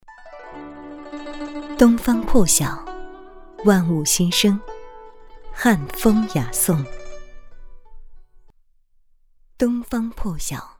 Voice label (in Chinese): 大气 广告; 东方破晓万物新生